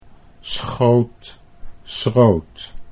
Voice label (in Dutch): "schoot schroot", Dutch